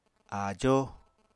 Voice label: "áajó", Apatani

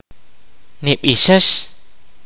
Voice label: kaqchikel; To sing

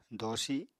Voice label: "doosi", Apatani

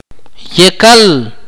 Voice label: "tomorrow", Akateko

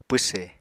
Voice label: "pwse", Apatani

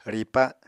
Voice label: "ripa", Apatani

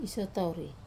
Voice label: "sotauri" spear, Wamesa

